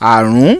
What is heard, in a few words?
"ARUN", Yoruba